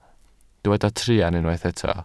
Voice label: "truan", Welsh

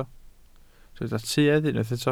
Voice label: "tuedd", Welsh

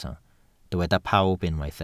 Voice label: "pawb", Welsh

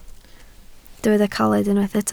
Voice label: "caled", Welsh

